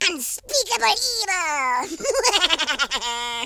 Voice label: excellent, Horror